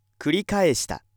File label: male